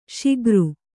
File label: "ಶಿಖರಿ", Kannada